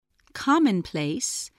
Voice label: "commonplace", voice

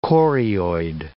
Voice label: "chorioid", English

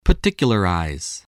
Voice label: "particularize", English, voice

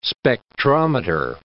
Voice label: "spectrometer", english

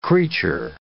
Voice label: "creature", English

